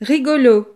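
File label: "rigolo", French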